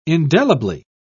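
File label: "indelibly", British English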